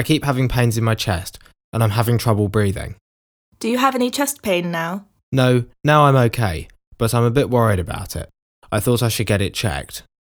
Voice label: Conversation; Bedside Manner Beginner